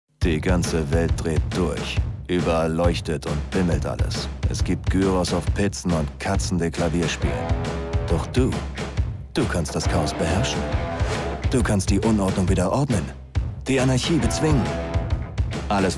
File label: tv spot advertisement